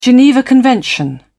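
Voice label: "geneva convention", British English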